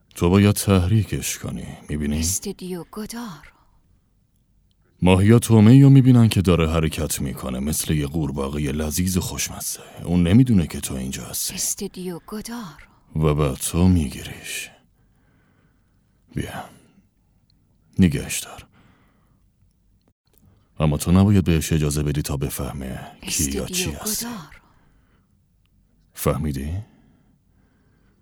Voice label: dub